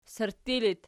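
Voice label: sirtti locative, Archi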